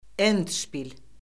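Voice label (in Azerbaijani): "endşpil", Azerbaijani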